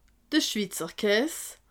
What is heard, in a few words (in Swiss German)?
"schwitzerkaas", alsace